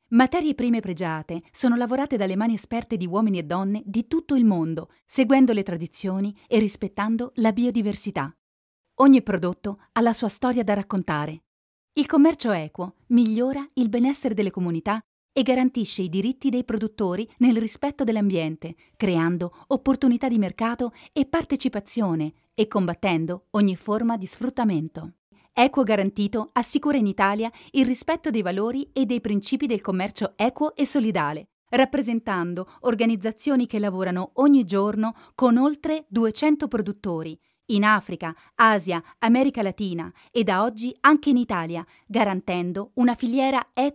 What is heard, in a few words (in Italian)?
ITA SHORT AD; Commercio Equo e Solidale